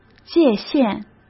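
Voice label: "界线", Mandarin Chinese; chinese flashcards; hsk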